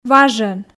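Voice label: "важӧн", Komi, female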